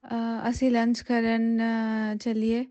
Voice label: punjabi; POP UP Zagreb